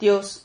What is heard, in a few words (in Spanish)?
"tios", Silabas